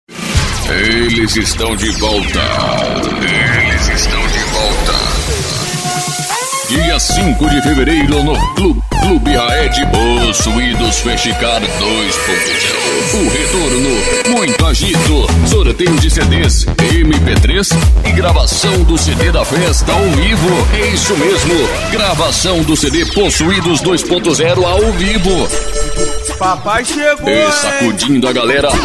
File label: spot; possuidos fest car